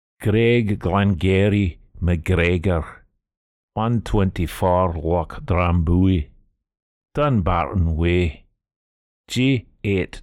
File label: Scottish accent